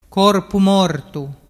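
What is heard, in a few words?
"corpumortu", Vernazzese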